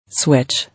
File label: "Switch", English